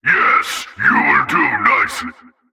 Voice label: Male Alert Line; NPC; Heist Cultist Spotted